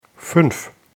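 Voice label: "fünf", German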